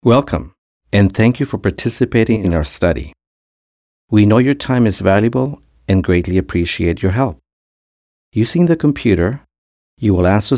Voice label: english